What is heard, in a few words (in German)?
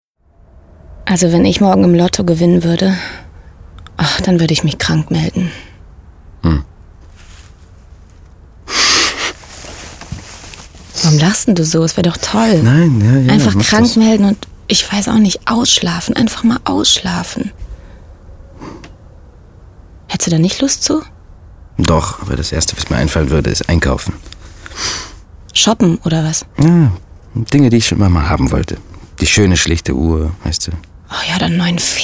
Dialog, schauspielerinnen; Lindhorst Lotto